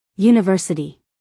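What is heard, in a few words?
"university", American English, female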